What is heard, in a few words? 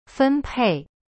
"分配", Chinese; fen pei